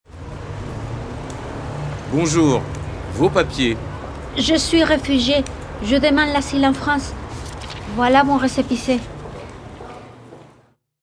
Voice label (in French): Dialogues, dans la rue; RFIAsile